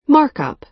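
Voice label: "mark-up", American English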